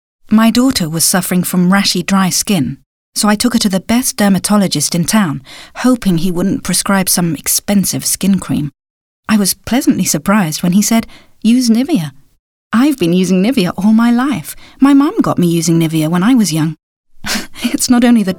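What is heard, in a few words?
Commercial, voice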